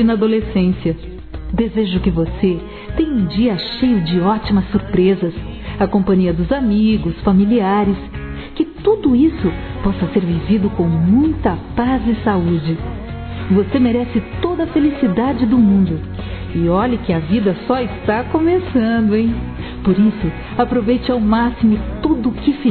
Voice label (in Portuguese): Aniversário Adolescente Feminino; Aniversários; ANIV. DE ADOLESCENTE; Telemensagens